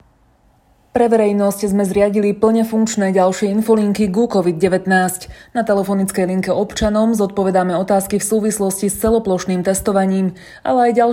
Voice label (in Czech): Zvuk infolinka